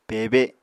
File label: "pebe", Apatani